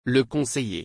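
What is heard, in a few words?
"Le conseiller", French; Le conseiller (m.)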